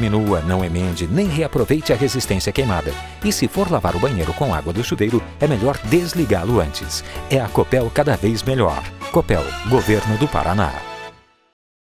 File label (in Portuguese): SPOT BANHO; COPEL; copel informa